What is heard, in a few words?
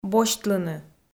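"босьтлыны", Komi, female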